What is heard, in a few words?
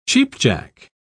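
"cheap-jack", British English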